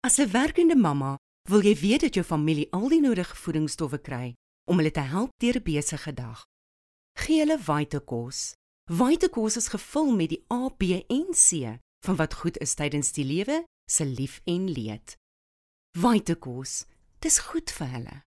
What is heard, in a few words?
Soft Sell South African Neutral